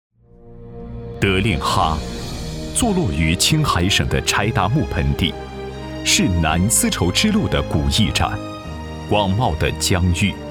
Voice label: 专题 大气有感情; 德令哈

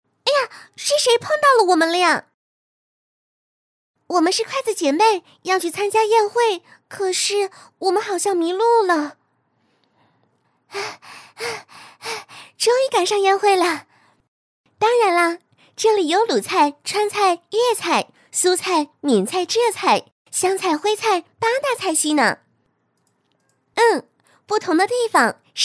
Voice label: 角色 童声 女童角色

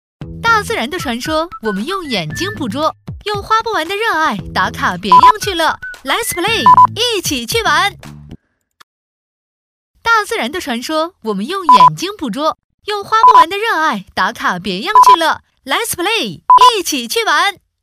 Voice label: 欢快广告; 一启趣玩

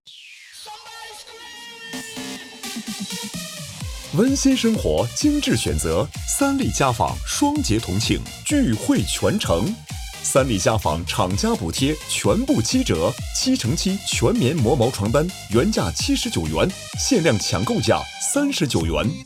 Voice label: 促销

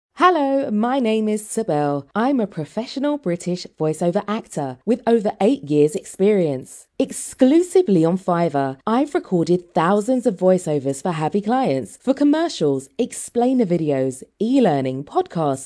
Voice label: 外籍 英 自我简介

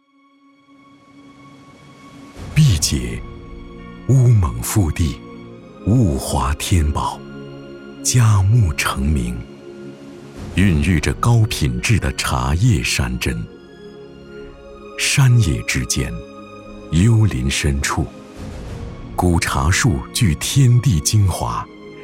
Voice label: 广告 茶类广告

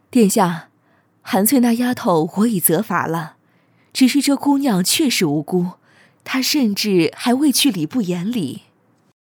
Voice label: 角色 游戏 中年女性

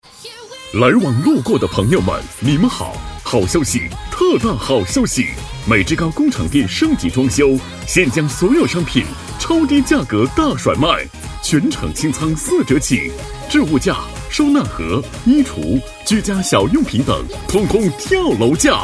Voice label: 促销 大气激情 青中年

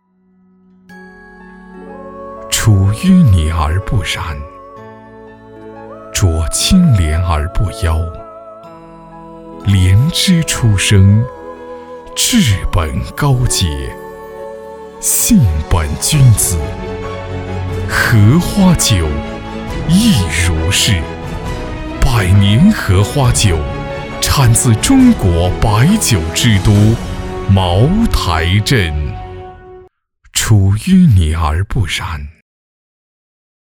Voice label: 广告白酒 大气 经典

